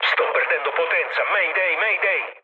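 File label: Italian damaged engine mood low, speech; War Thunder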